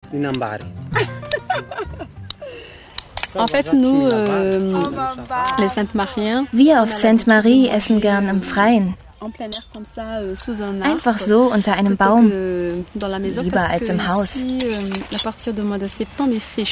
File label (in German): voice over dokumentation; arte